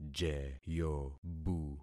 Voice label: "jeyobu", concat syllables; Affixing experiment